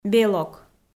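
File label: "белок", Komi-Zyrian, female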